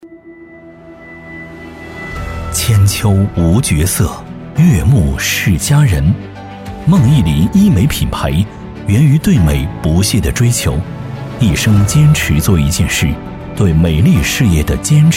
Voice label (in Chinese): 医美宣传片; 梦意琳